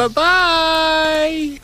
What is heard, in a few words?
"bye bye" cartoon; expletives